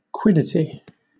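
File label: "quiddity", British English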